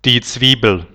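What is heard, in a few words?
"die Zwiebel", German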